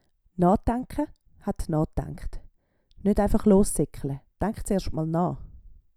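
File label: "naadaenke", Swiss German vocabulary; Wortschatz Kapitel 8; Kapitel 8 Zusatzwortschatz 5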